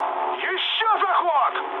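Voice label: Russian bomb failure mood high, speech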